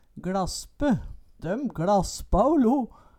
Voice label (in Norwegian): "gLaspe", Numedalsmål; Døm gLaspa å lo